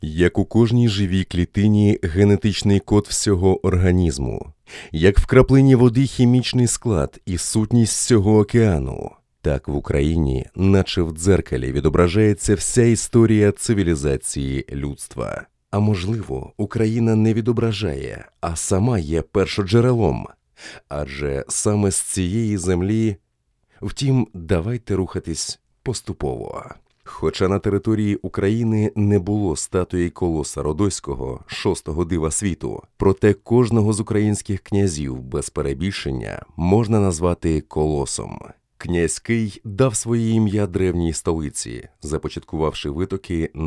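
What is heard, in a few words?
Ukrainian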